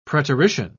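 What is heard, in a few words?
"preterition", British English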